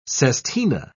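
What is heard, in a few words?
"sestina", British English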